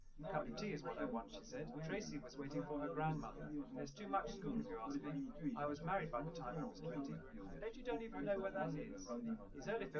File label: male liverec; SiSEC10; underdetermined